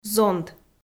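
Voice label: "зонт", Komi, female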